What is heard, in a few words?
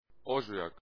"ozujak", Croatian